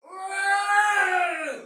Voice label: Battle Cry Soldier Female; Dialog; Sinecure